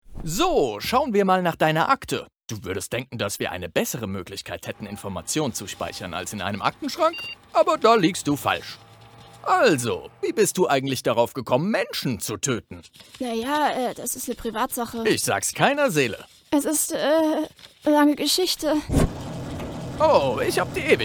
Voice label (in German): Hoerspiel, Sprecher; Schauspiel Trickfilm